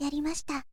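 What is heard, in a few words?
"yarimasita", girl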